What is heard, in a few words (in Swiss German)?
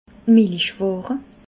"milichware", alsace